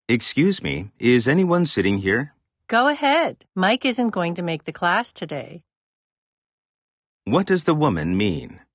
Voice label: conversation